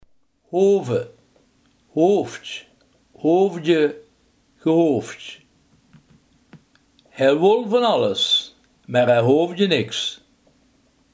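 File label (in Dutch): hoeven, Limburgse gesproken woordenboeken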